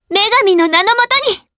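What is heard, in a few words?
Vox Skill, Japanese; Frey; kingsraid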